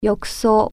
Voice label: bathtub, japanese